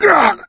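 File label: boomer reaction, voice, male; Left 4 Dead 2; coach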